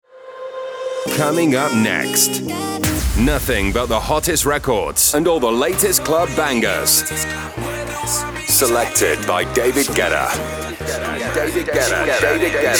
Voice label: english british podcasts